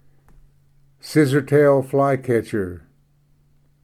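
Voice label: "scissor tailed flycatcher", English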